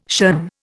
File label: "shun", Indian